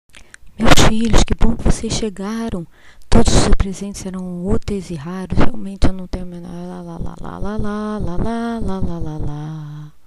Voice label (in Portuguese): pai Voz Chegada; Olívia - O Casamento da Princesa Nuriar